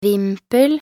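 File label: "vimpel", Norwegian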